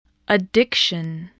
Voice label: "addiction", English voice